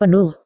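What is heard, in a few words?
"penuh", Indonesian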